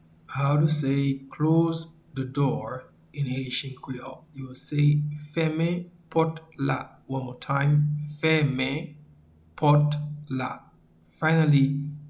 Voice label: "Fèmen pòt la" audio pronunciation, Haitian Creole; “Close the door” is translated as “Fèmen pòt la” in Haitian Creole